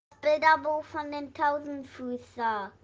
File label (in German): Tausenfuesser Maedchen